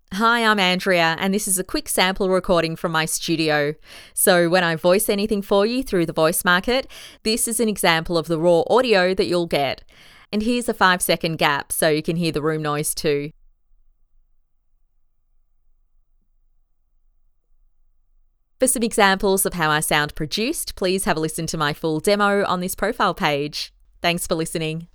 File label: STUDIO SOUND CHECK